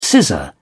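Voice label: "scissor", British English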